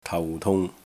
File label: "tau4 tung3", Cantonese